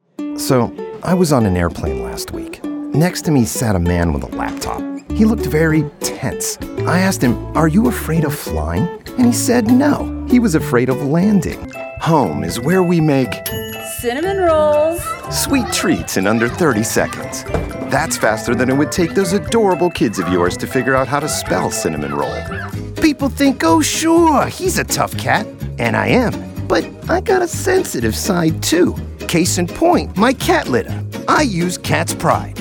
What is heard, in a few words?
Commercial